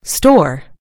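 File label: "store", American English; gcompris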